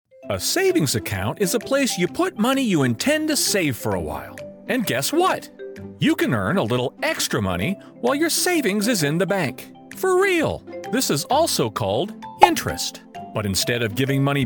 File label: Narration